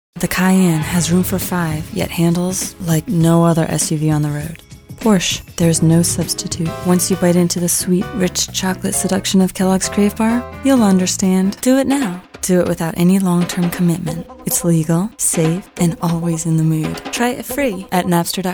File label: voiceover